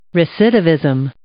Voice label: "recidivism", English

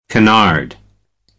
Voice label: "canard", American English; æ - ə

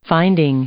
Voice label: "finding", English; noun

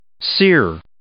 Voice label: "sere", English

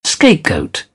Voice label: "scapegoat", British; oʊ - əʊ; English

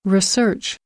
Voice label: "research" noun

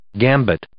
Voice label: "gambit", English

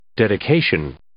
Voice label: "dedication", English

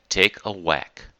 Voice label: "take a whack" idiom

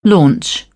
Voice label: "launch", British; AmericanBritish

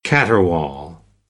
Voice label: "caterwaul", American English; ɑː - ɔː

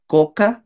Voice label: "koka", Náhuatl; anona(s)

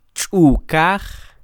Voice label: ch'u'kaj, Quiché; codo; elbow

